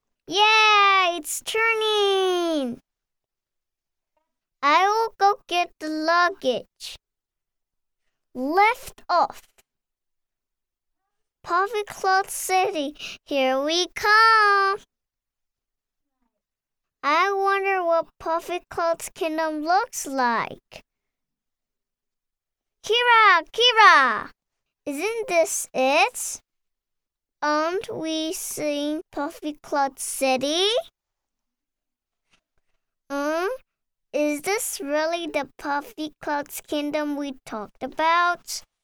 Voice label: 外籍女英 外语 美式英语; FINAL玩具故事云之国历险记

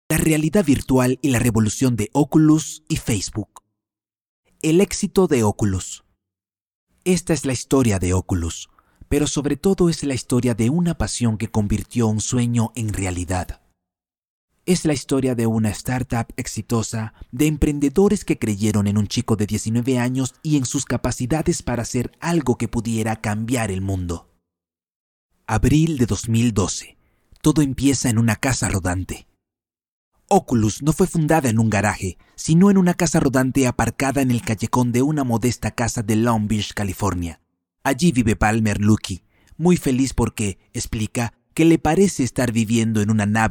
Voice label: 西班牙语男, 外语

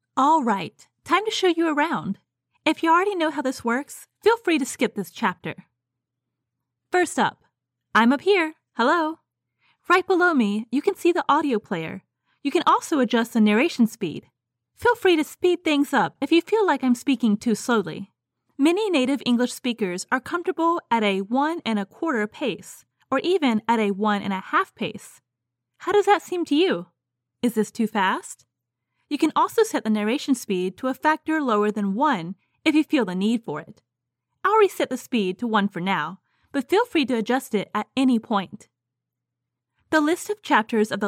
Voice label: 外籍女英 外语 美式英语 旁白

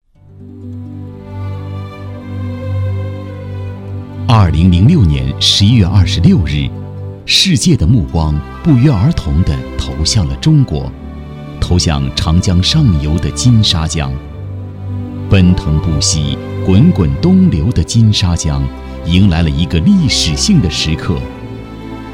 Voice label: 纪录片 人文历史, 男; 仿再说长江

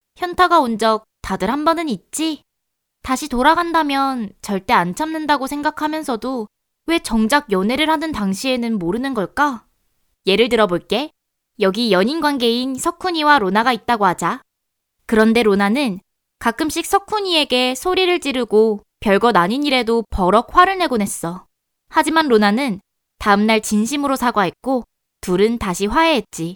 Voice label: 韩语女 外语 小语种

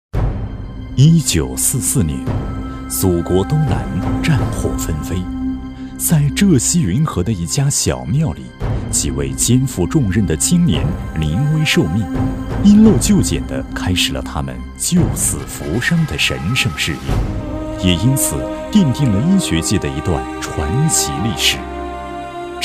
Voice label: 宣传片 企业 医院 自然, 男; 湖州中心医院

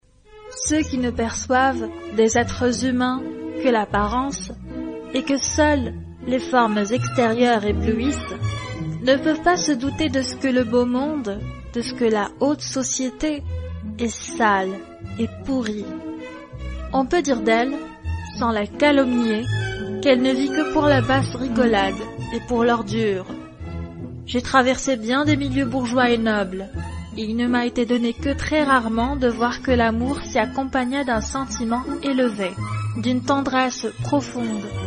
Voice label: 法语女, 外语, 小语种, 时尚

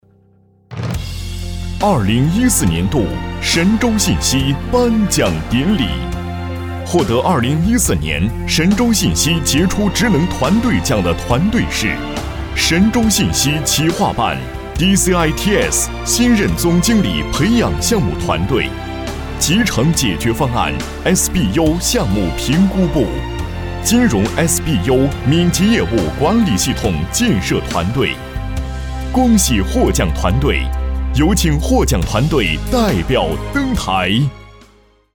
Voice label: 男 专题 颁奖; 公司颁奖

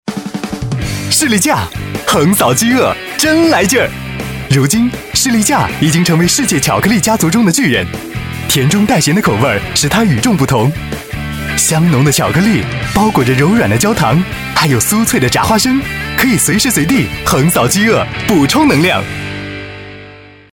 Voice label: 广告 激情; 食品饮料; 士力架

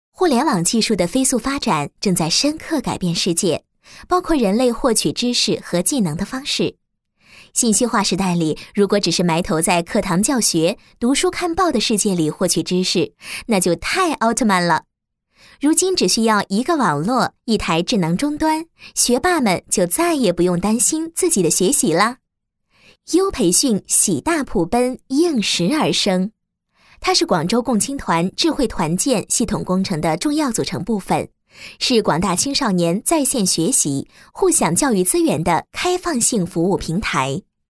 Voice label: 多媒体 培训课件 培训 宣传 简介, 女国

